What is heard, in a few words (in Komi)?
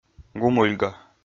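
"гумыльга", Komi, male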